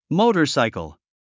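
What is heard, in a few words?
"motorcycle", English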